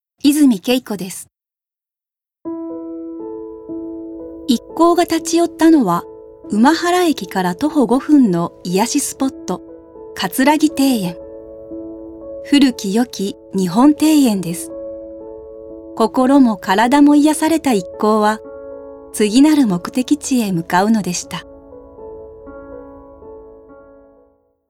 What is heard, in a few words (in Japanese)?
ノーマル